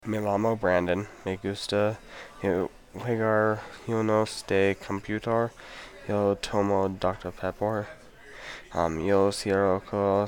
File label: spanish